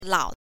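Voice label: "lao5", Chinese; 老太婆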